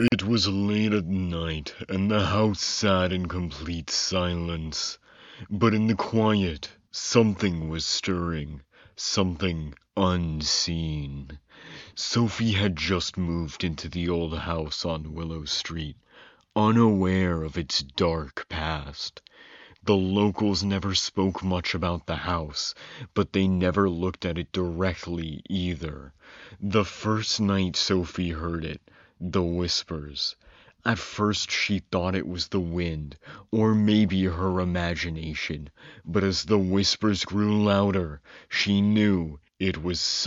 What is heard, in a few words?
Narration; English (North American)